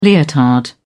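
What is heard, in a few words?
"leotard", British English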